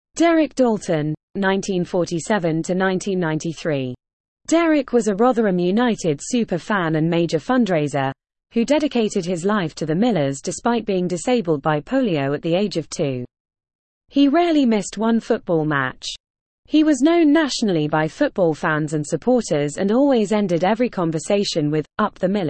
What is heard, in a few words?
Female